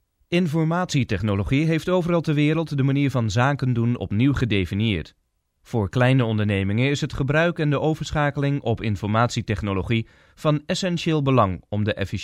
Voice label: Dutch, voice talent